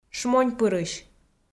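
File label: "шмоньпырысь", Komi, female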